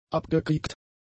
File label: "abgekriegt", German; adjectives